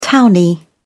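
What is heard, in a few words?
"townie", British English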